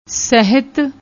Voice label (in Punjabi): "sehat", Punjabi; ਸਹਿਤ